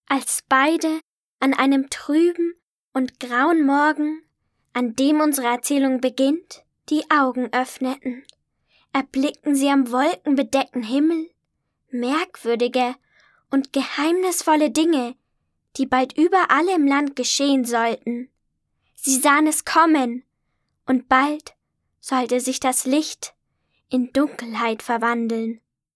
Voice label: maedchen; Licht Dunkelheit

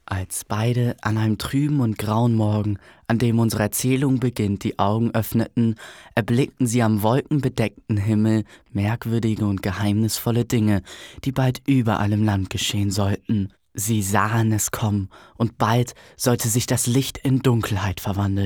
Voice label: jungen; Licht in Dunkelheit

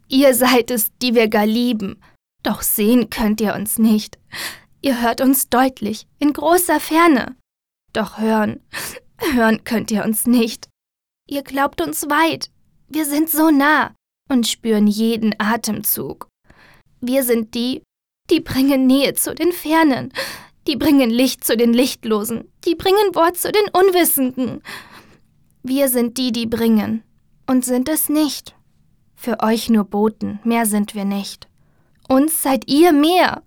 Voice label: traurig

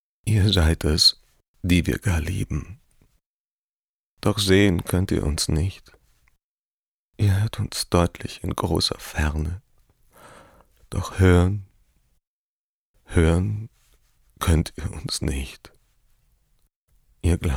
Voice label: traurig, maenner; Sprecher

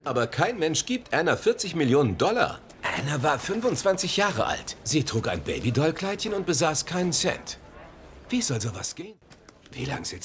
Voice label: Synchron, maenner; Inventing Anna

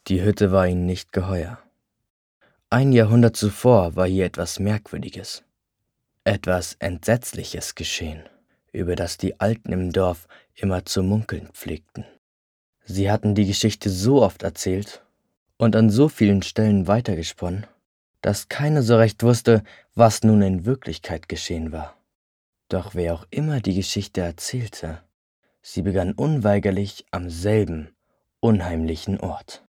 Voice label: Jungen; Die Hütte

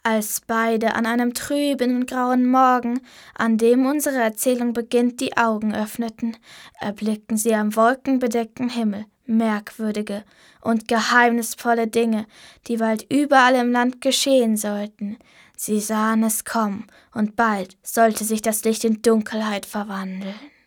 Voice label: maedchen; Licht in Dunkelheit

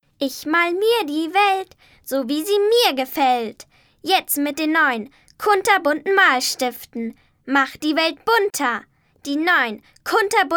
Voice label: maedchen

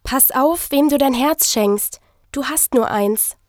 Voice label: Spot; Sat.1

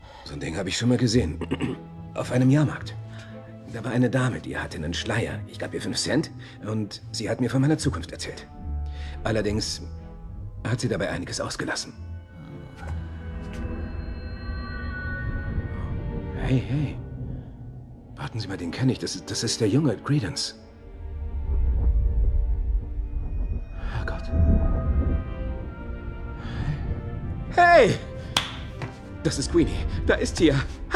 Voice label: Synchron, maenner; Phantastische Tierwesen; Grindelwalds Verbrechen

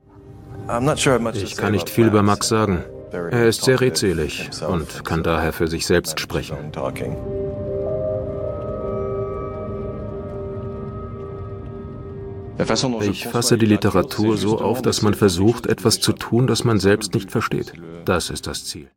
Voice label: Voice Over, maenner; Arte; Die Wohlgesinnten

